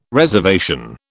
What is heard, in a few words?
"reservation", British